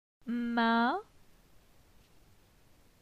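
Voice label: "Min", French; phonetique; la syllabe in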